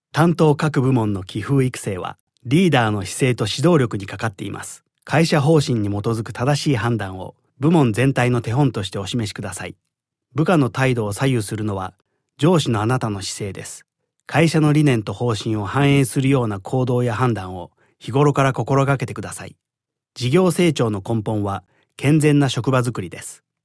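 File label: Japanese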